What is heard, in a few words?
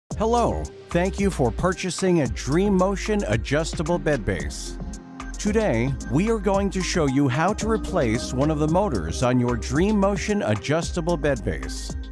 english american audio guides; professional American voice talent